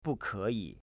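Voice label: "bukeyi", Chinese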